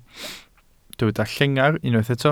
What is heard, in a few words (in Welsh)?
"llengar" Welsh